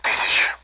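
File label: Speech, Russian, AWACS; 1000s; DCS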